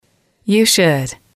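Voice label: you should, female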